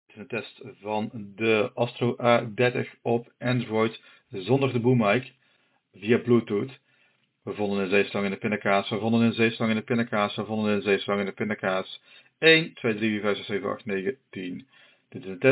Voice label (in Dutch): Android zonder boommic